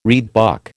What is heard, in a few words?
"reedbuck", American